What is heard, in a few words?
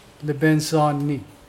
green lizard, Mokilese